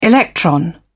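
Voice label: "electron", British English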